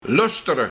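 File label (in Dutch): "Lustere", Luik, Luisteren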